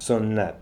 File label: "Sooniat", pronounciations; Fourth Way; Beelzebub